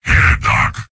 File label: soldier activate charge; source engine; tf; mvm